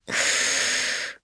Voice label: Vox Casting, jp; Aisha; kingsraid